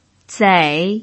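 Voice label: "zei3", Mandarin Chinese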